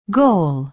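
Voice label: "goal", American English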